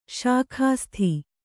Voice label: "ಸೊಗಸಿತು", Kannada

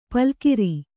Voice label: "ಪಲ್ಕಿರಿ", kannada; palkiri; = ಪಲ್ಗಿರಿ.

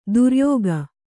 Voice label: "ಧೌರೇಯಕ", kannada

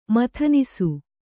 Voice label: "ಮಥನಿಸು", Kannada

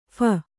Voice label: "ಫ", Kannada; pha; noun

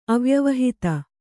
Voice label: "ಅವ್ಯವಹಿತ", Kannada

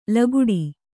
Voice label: "ಲಗುಡಿ", Kannada

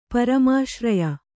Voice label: "ಪರಮಾಶ್ರಯ", Kannada

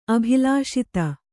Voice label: "ಅಭಿಲಷಿತ", Kannada

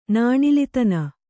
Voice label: "ನಾಣಿಲಿತನ", Kannada

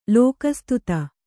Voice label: "ಲೋಕಸ್ತುತ", kannada

